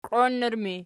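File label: "qioinnur" ergative, Archi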